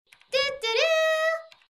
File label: Tuturu Dub Version